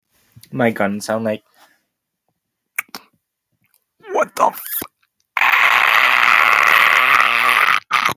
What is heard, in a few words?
voice message